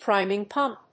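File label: "PRIMING PUMP", English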